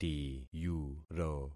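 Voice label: "tiyuro", concatenated syllables; Affixing experiment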